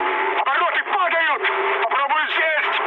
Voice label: damaged engine, mood high, speech ru; War Thunder

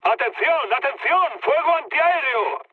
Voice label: damaged aa, mood med, speech; War Thunder

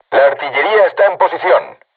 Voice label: voice message art charged, crew tanks spanish

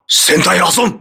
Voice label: hull breach, voice message, crew ships japanese

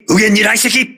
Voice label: voice message torpedo right, crew ships japanese

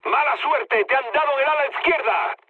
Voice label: left wing, mood low, speech; War Thunder

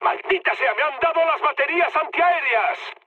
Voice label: damaged aa, mood high, speech; War Thunder

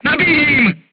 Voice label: voice message reloading, crew tanks czech

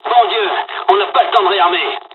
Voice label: dialog, dialogs french; War Thunder; midway

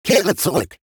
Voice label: robot Pilots Land; World Of Warships

